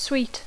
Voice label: "sweet", English